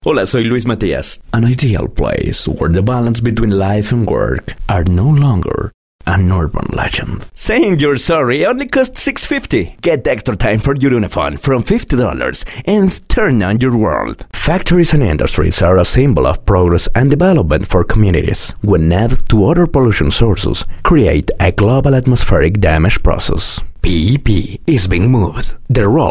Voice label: Locutor ingles